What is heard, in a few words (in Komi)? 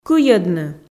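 "кыйӧдны", Komi, female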